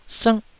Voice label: "sngh1", Taiwanese syllable

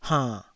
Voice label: "hainn3", taiwanese

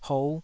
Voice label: "hou5", Taiwanese syllable

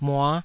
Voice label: "mua5", Taiwanese

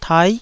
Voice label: "tai9", taiwanese Syllables

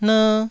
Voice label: "ner1", taiwanese

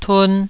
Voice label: "tun2", taiwanese Syllables

